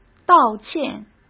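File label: "道歉", Mandarin Chinese; chinese flashcards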